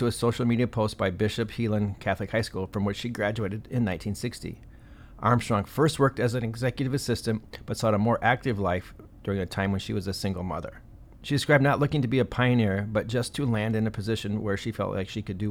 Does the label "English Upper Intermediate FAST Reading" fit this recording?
No